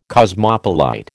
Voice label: "cosmopolite", American